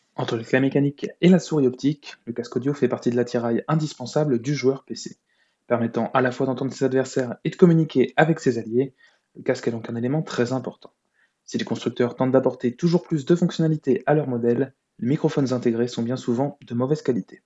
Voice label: nacon rig 600 pro hs soundtest

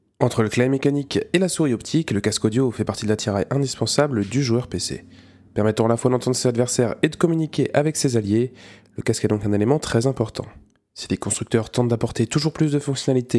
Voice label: yeti gx soundtest base

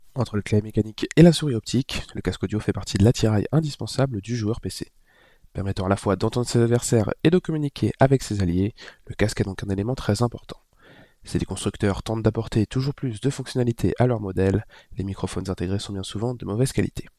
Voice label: razer blackshark v2 pro soundtest

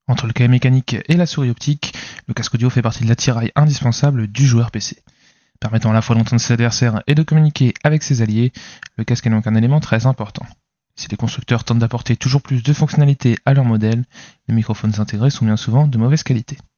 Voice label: soundtest broadcast logitech g pro x 2 lightspeed

